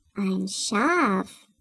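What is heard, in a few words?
"sheep", German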